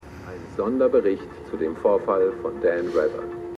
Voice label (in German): TV Sprecher; Stimmproben; For All Mankind